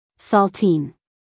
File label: "saltine", US female; 1000 hours